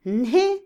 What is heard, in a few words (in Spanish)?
"necesidad", Otomí, mujer; Cognición; Vocabulary; INALI